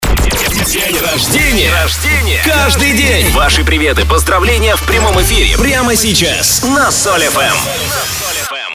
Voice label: ДЖИНГЛ; ДеньРождения Каждый День - Открывашка

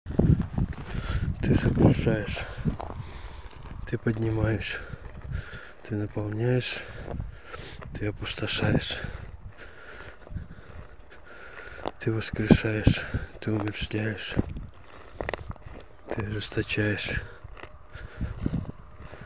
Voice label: инфо акапелла

